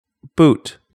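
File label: "boot" English word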